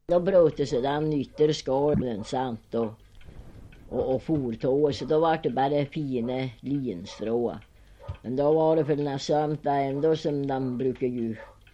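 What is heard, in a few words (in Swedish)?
Vilhelmina Lappland, dialekter; Folkminnen; Svenska sagor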